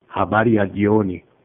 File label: good evening swahili